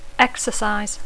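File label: "exercise", English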